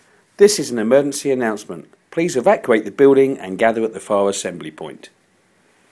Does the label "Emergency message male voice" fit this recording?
Yes